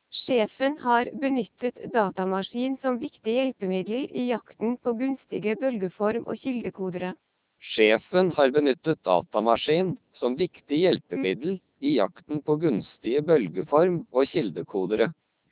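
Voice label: Norwegian, speech, CODEC2 3200 bps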